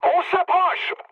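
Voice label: near waypoint, mood high, French; War Thunder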